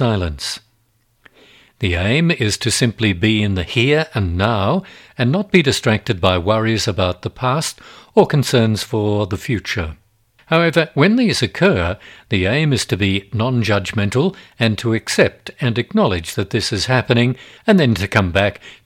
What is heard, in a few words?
Meditation